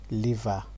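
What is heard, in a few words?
"liver", Nigerian Pidgin, male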